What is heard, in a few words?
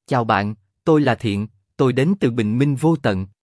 voice nam